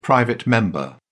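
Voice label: "private member", British English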